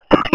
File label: Police Sheriff Dispatch